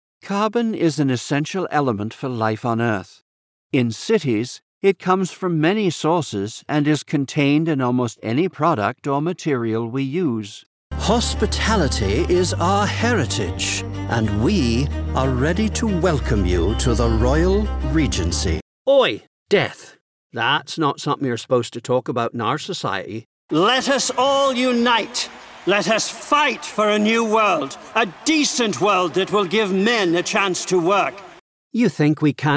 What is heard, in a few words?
British Samples; British Accent Sampler